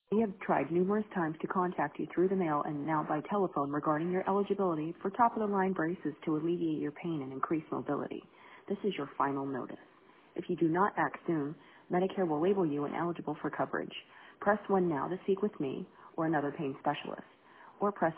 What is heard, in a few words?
voicemail